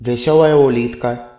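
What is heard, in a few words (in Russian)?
snail desc russian; insects; cartoon; tuxpaint-stamps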